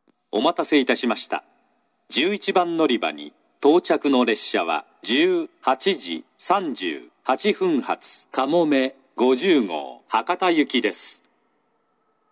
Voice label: 嬉野温泉, 11番のりば接近放送; かもめ50号 博多行き; 列車がホームに差し掛かったら放送が流れます。

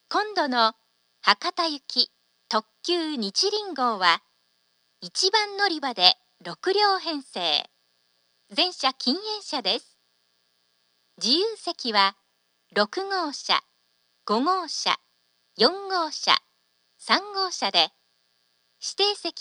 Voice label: 案内放送; 宇佐; にちりんシーガイア 博多行き

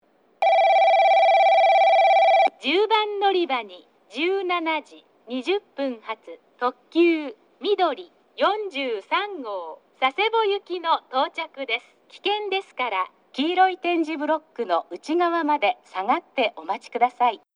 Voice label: 10番のりば接近放送; takeo onsen; みどり43号 佐世保行き

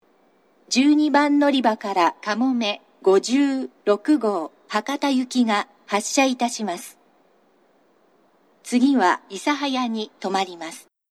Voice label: 長崎 西九州新幹線ホーム 自動放送, 12番のりば発車放送; かもめ56号 博多行き

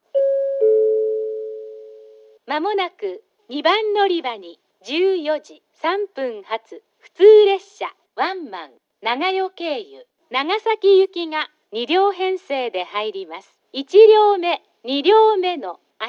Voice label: 2番のりば予告放送; ハウステンボス; 2番のりば予告放送（普通ワンマン・長与経由 長崎行き）; ※長崎行きと早岐行きの乗り間違いに注意

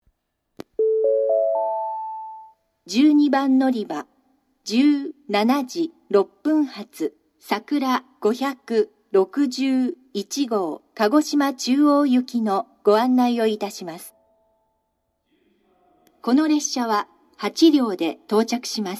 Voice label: 12番のりば案内放送, 新幹線ホーム; 筑後船小屋; 12番のりば案内放送（さくら561号 鹿児島中央行き）

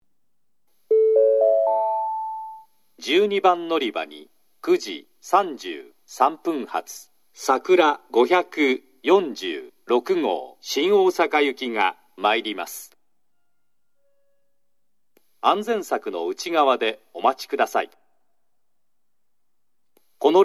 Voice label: 新水俣, 12番のりば接近予告放送; さくら546号 新大阪行き; shin minamata

